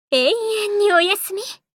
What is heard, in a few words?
Voice victory